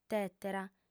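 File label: "tètera", Sardinian, female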